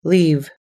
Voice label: "leave", US English